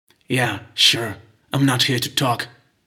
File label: Tough Protagonist Voice